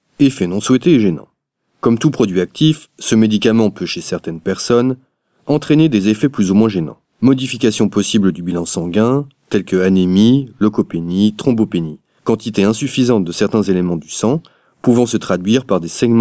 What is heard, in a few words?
French Medical